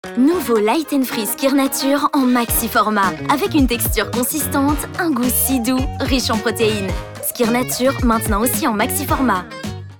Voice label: French promos

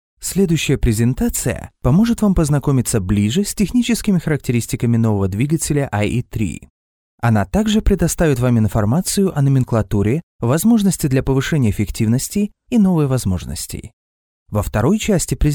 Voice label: russian corporate videos; ruso (nativo)

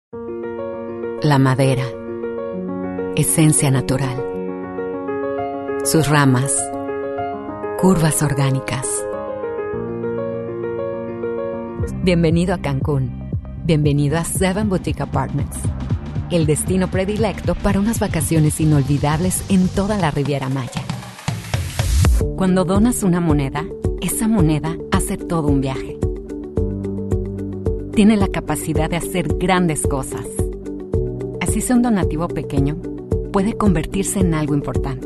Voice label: spanish mexican commercial

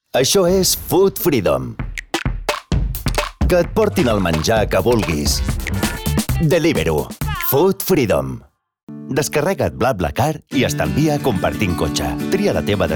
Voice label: catalan commercial

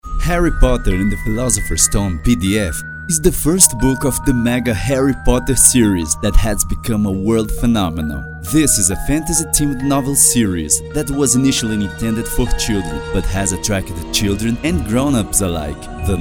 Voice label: english commercial

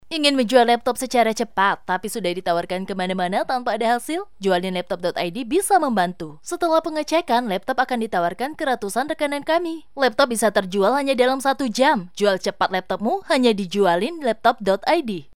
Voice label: Indonesian promos; indonesian voice over talent

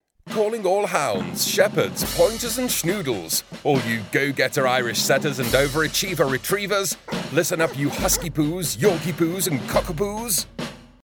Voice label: english british animation

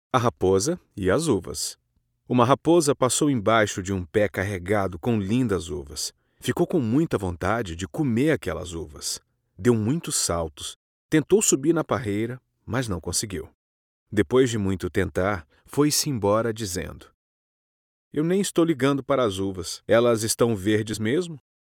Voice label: Portuguese Brazilian narration

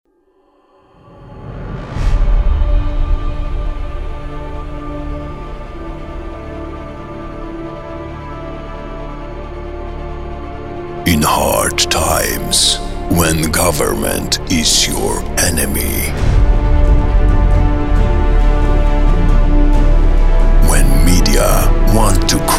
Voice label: english movie trailers

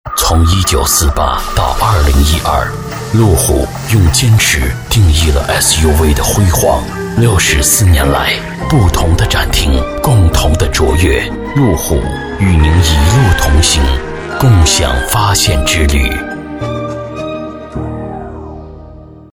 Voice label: chinese mandarin promos

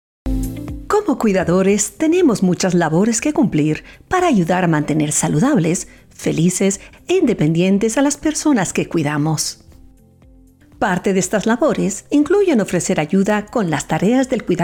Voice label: spanish latin american medical narration

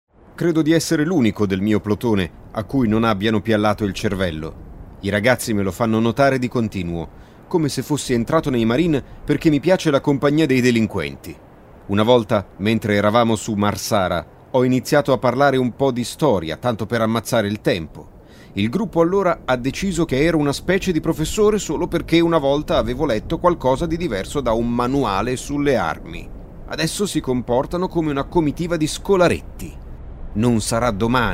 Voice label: italian video games

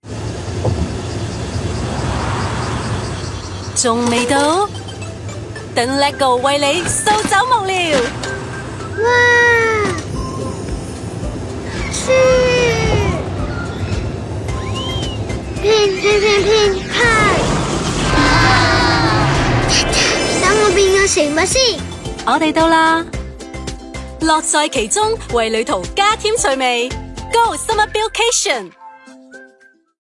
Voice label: chinese cantonese commercial

